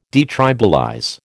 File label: "detribalize", American